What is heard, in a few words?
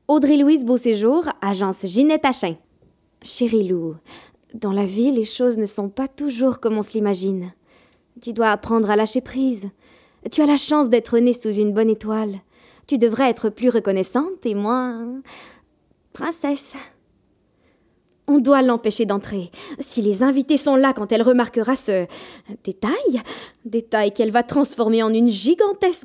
DRAMA QUEEN